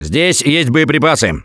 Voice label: Spot Ammo, russian, Survivor Voice; Gambler